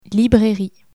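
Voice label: "librairie", French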